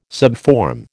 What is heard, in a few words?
"subform", American